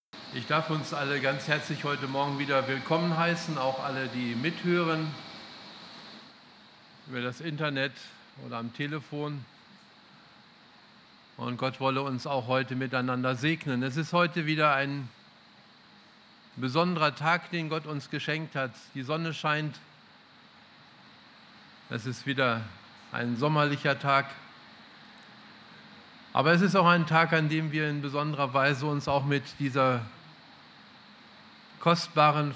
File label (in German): Ansage